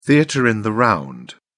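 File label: "theatre in the round", British English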